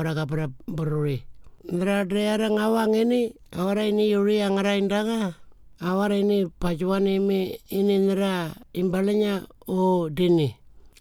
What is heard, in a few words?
Burial Notification TIWI; The Deceased; modern tiwi; burial and cremation; information recorded in 18 aboriginal languages; Burial and Cremation Act 2022